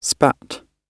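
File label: "spat" British English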